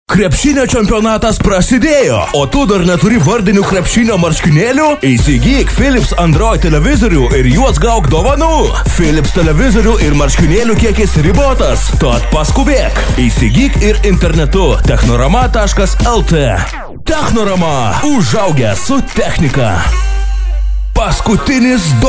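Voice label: Lithuanian male; lektoring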